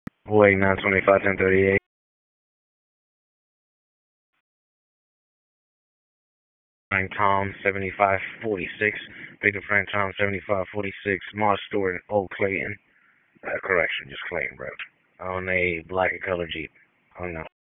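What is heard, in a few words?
Sheriff's Office Dispatch 1, p25; wake; WSO DISP 1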